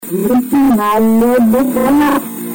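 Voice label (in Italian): "diritti", Italian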